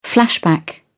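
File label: "flashback", British English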